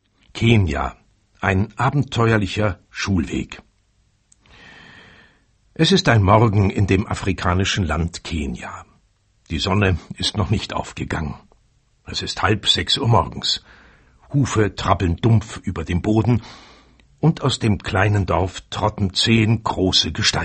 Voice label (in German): Hörbuch; Kenia